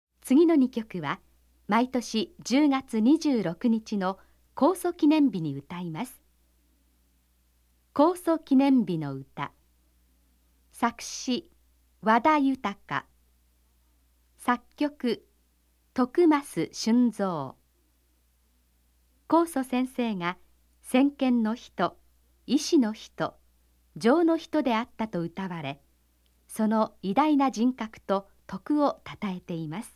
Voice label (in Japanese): ナレーション